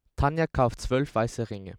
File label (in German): normale Stimme Mann